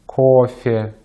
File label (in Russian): "кофе", Russian; easypronunciation